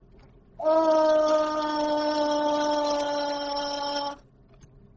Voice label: confused

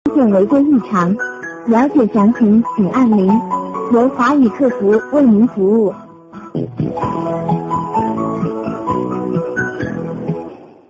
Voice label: frog speaking chinese

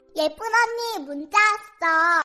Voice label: pretty unnie korean

